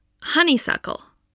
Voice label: "honeysuckle", voice spelling

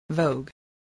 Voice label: "vogue", English

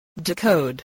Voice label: "decode", English

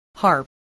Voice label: "harp", English

